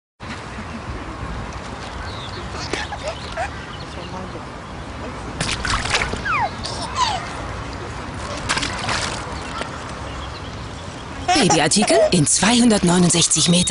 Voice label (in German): Werbespot Baby; Schlecker